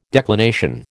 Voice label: "declination", American